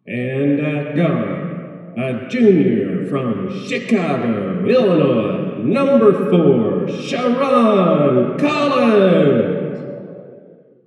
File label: Announcer Basketball